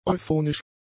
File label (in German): "euphonisch", German; adjectives